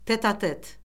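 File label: "tet-a-tet", Azerbaijani; Orfoqrafiya Lüğəti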